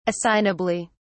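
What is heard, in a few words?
"assignably", British English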